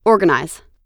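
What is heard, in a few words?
"organize", American English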